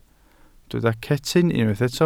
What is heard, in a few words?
"cetyn", Welsh